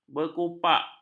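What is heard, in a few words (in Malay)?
"berkupak", Malay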